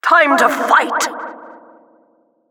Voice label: Announcer game starting; Hora de lutar!; A Administradora; Team Fortress 2; Menu principal